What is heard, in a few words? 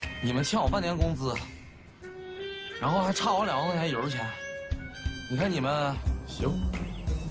drama